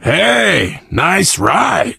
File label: initial meeting, survivor voice biker